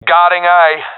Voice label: guarding bombsite a, professional epic; vo; Counter Strike Model Pack; CS:GO